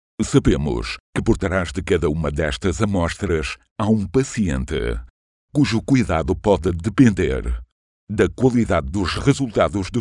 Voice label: locutor portugal portuguese voiceover; locutores portugueses